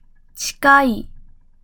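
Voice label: "近い", Japanese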